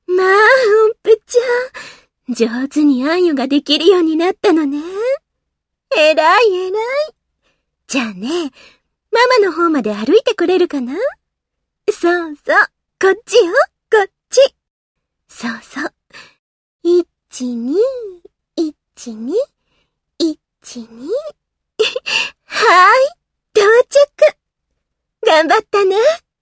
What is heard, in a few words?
adult mama